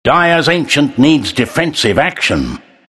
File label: announcer attack dire; Trine announcer